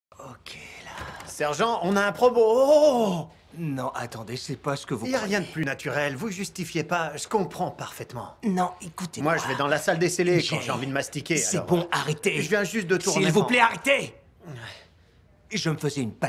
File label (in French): Doublage Fiction